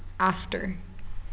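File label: "after", American, female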